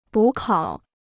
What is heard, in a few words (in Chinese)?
"补考", Chinese